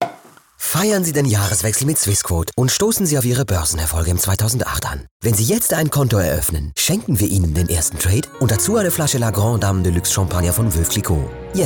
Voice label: Werbung CH DE; hochdeutsch

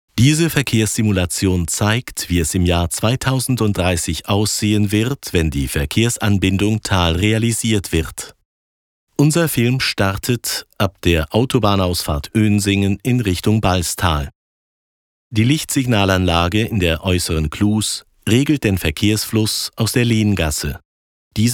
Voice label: OFF CH DE

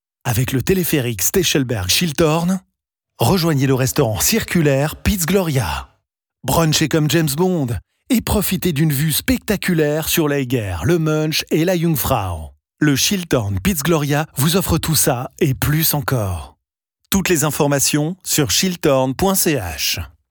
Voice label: Werbung CH FR; flippig frech verspielt

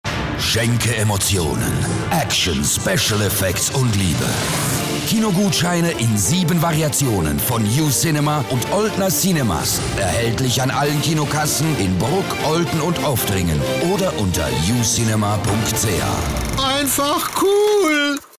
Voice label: Werbung DE CH; flippig frech verspielt

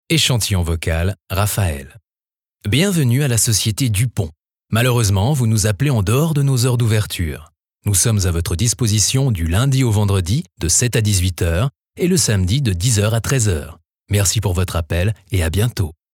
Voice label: Telefonansage FR CH; franzoesisch schweiz

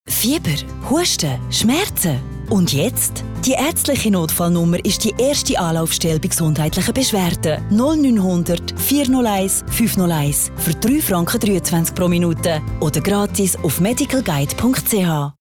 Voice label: Werbung CH AG

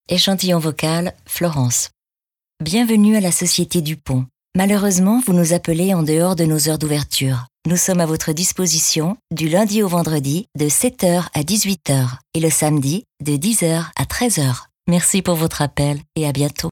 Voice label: Telefonansage FR CH

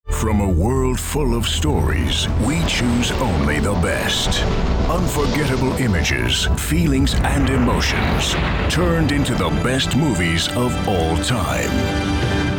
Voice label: Werbung EN US, off voice englisch usa

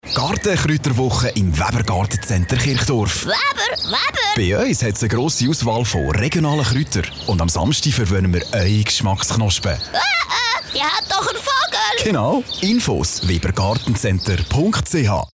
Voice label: Werbung CH SO, schweizerdeutsch; bahnhof olten

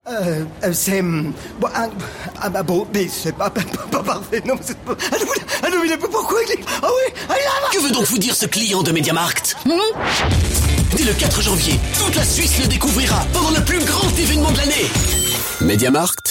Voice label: Werbung FR CH, flippig frech verspielt

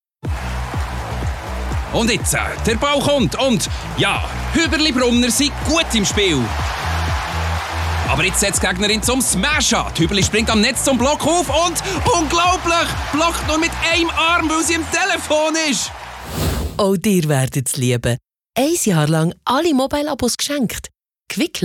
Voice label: Werbung CH BE, flippig frech verspielt

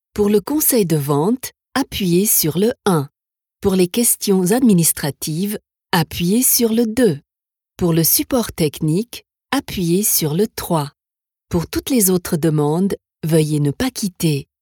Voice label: Telefonansage FR CH

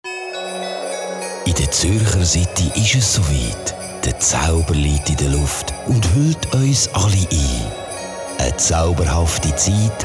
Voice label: Werbung CH ZH; schweizerdeutsch

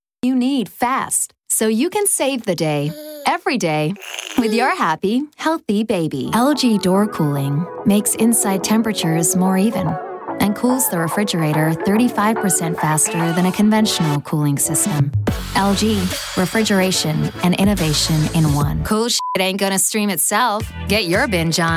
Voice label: Werbung EN US, flippig frech verspielt

